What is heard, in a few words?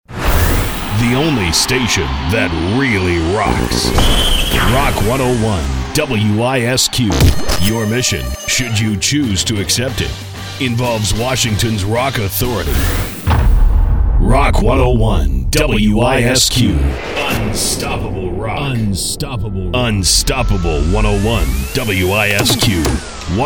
rock imaging